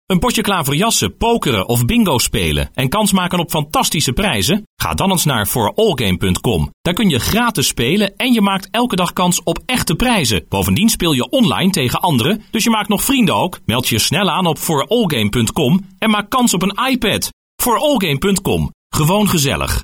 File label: male dutch voice over talent sales